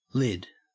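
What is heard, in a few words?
"lid", Australian English; pronunciations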